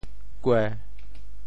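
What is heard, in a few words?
"kue1", Teochew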